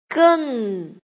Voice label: "geng4", pinyin